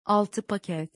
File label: six pack in turkish